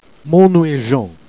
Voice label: "man nom est Jean", Jèrriais

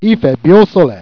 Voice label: "bieausole", Jèrriais

